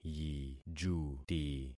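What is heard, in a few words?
"yijuti", concatenated syllables; Affixing experiment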